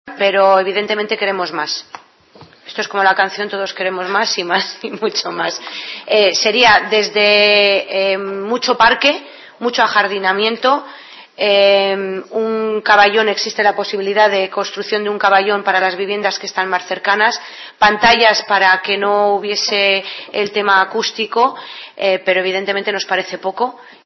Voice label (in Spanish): noticias